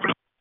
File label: Space to Ground; Expedition 39